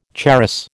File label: "charas", American